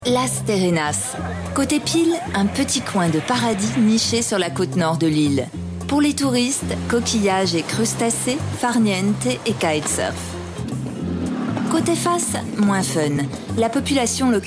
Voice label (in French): emission tele journalistique posee; france 3 THALASSA